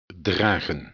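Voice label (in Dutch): "dragen", Dutch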